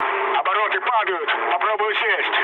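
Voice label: Russian damaged engine mood low, speech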